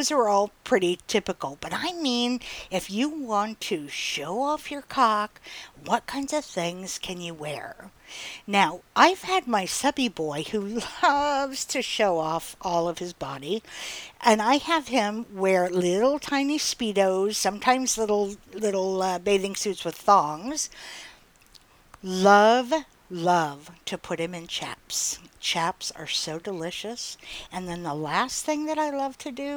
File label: Sexy Male; Clothes